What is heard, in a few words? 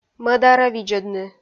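"мӧдарӧ видзӧдны", Komi-Zyrian, female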